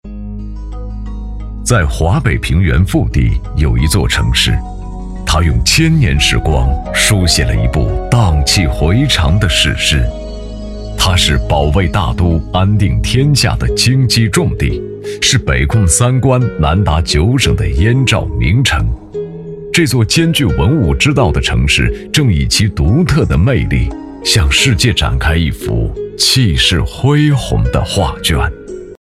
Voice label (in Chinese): 男 专题; 畿辅之地